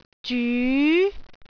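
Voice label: "zyu2", Cantonese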